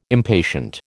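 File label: "impatient", American English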